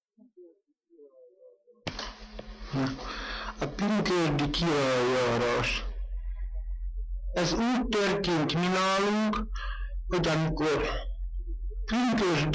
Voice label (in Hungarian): nyelvjaras gyujtesek